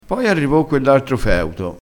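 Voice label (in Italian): "feuto", vocabolario dialettale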